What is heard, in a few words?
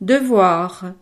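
"devoir", French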